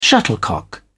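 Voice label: "shuttlecock", British English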